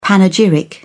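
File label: "panegyric", British English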